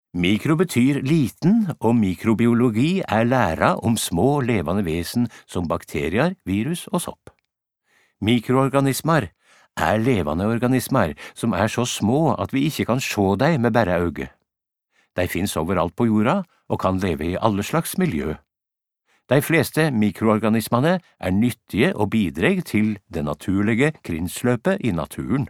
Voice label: no nynorsk